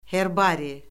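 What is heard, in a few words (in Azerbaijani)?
"herbari", Azerbaijani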